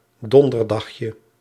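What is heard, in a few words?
"donderdagje", Dutch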